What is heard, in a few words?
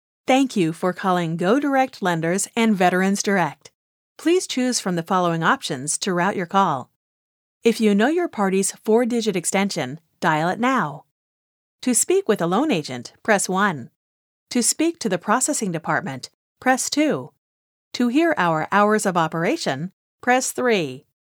AUTO ATTENDANT